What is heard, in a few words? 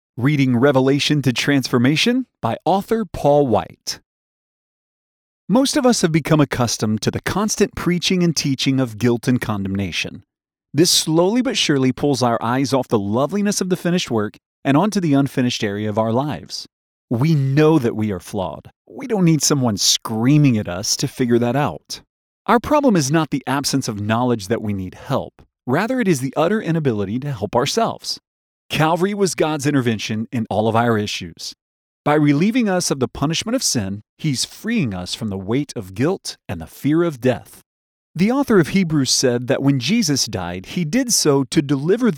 narration sample; Revelation to Transformation